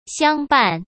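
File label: "相伴", Mandarin Chinese; hsk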